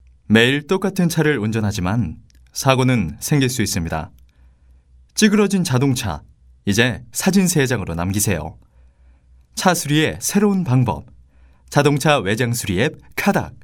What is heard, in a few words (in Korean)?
홍보 성우느낌 없는